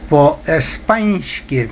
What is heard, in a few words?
"po espans'ky", Ukrainian